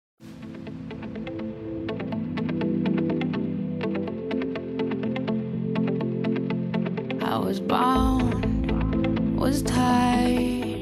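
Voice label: male; Aniversário de Afilhado – Voz Masculina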